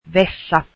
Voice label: toilet, finnish